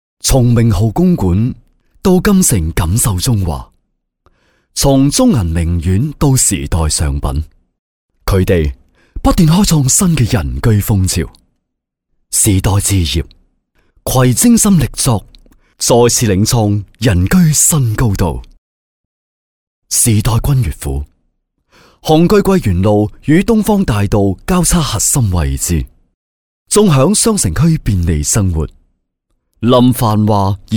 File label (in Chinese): 男粤 广告 地产; 粤语; 君悦府